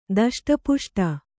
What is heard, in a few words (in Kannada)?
"ದಷ್ಟಪುಷ್ಟ", Kannada